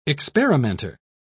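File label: "experimenter", English, British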